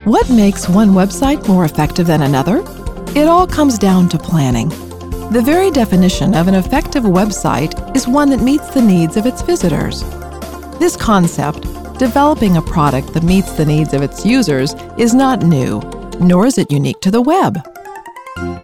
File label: Narration Websites